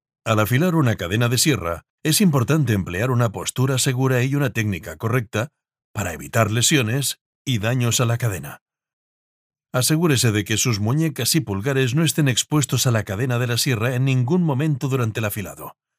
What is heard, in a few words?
locutor spanish voiceover